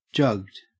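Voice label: "jugged", Australian English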